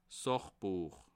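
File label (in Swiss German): "sachbuech", Bas Rhin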